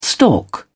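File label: "stalk", British English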